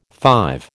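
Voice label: "five", American English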